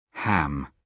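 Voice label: "ham", British English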